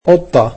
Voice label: "cat", egyptian